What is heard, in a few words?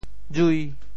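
"jui5", Teochew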